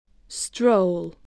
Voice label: "stroll", spelling

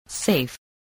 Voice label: "safe", English spelling

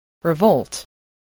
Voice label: "revolt", English

